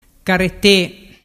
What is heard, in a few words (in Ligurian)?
"carrete", dialettu